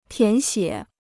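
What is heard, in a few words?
"填写", Chinese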